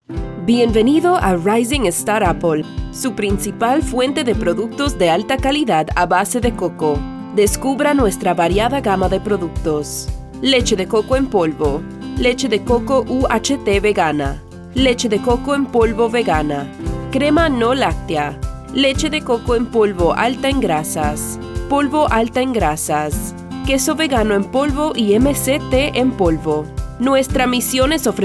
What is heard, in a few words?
spanish latin american corporate videos